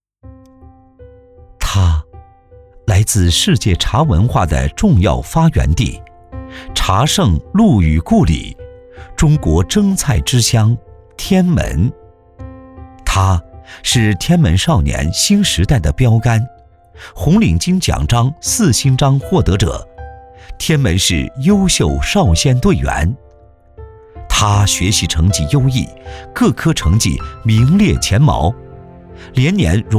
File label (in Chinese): 男, 纪录片, 人物